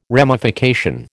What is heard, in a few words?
"ramification", American